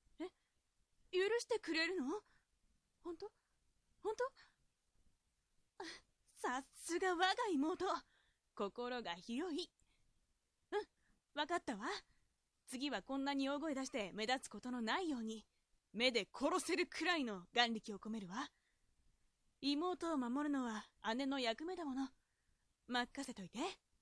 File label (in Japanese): josei